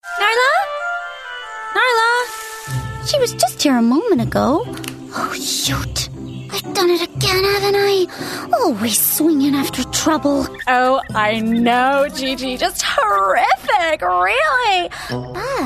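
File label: US animation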